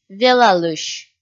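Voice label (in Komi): "велалысь", Komi, female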